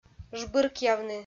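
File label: "жбыркъявны", Komi, female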